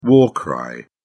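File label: "war cry", English, British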